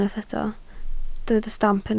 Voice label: "stamp", Welsh

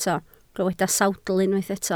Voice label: "sawdl", Welsh

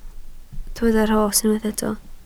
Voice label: "rhos", Welsh

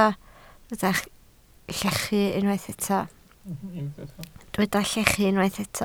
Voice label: "llechu", welsh

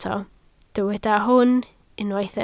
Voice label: "hwn", Welsh

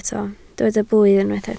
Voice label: "blwydd", Welsh

